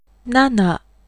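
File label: Japanese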